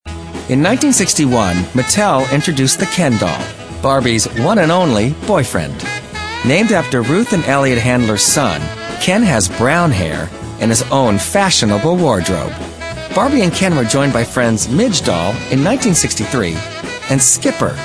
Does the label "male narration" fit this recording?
Yes